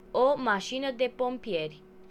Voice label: fire engine desc, ro; vehicles; emergency; cartoon; tuxpaint-stamps

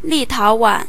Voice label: "lithuania", zh_CN; gcompris; geography

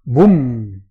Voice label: explosion desc, ca; naturalforces; tuxpaint-stamps

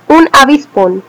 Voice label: hornet, es; animals; insects; tuxpaint-stamps

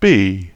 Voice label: "b" filled, English GB; tuxpaint-stamps; symbols; alphabets; lowercase

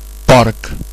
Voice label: pig, ca; tuxpaint-stamps; animals; mammals

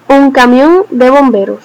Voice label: fire engine desc, es; vehicles; emergency; cartoon; tuxpaint-stamps

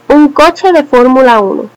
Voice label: f1 car desc, es; vehicles; race; tuxpaint-stamps

